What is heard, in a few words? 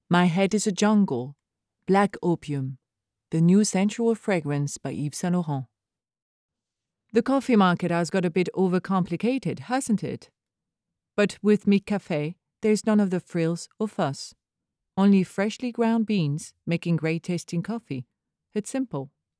English Advert Sample